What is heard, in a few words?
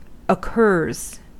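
"occurs", US English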